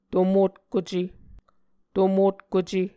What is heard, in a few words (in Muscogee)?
"to motkuce", Muscogee